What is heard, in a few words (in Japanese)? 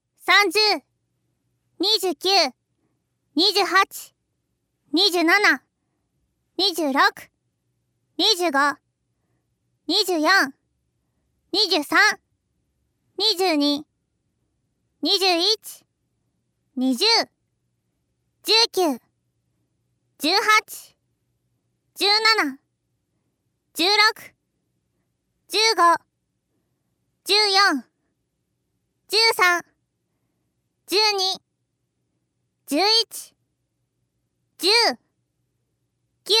カウントボイス30から0, ASMR